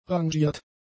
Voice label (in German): "rangiert", German; adjectives